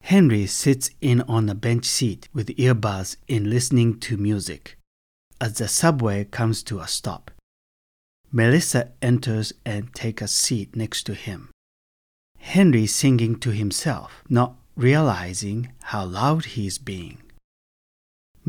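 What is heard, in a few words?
Japanese English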